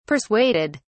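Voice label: "persuaded", British English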